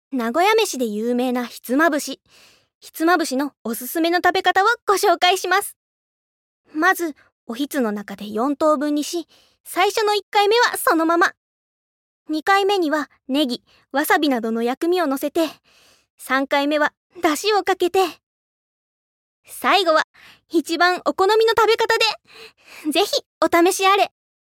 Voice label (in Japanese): Narration Chihou CM